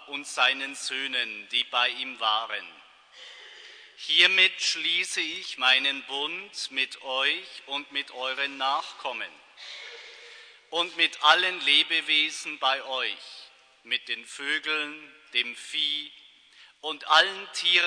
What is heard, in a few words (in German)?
Lesung